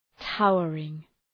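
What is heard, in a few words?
"towering", English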